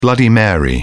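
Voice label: "bloody mary", British English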